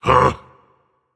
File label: Confused, Dragon; fafnir